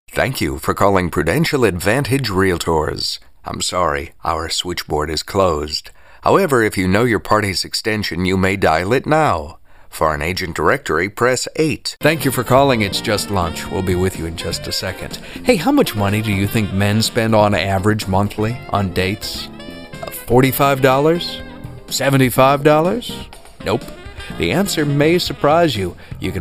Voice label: Telephony On-Hold